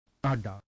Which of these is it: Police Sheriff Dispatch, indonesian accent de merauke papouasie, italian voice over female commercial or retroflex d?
retroflex d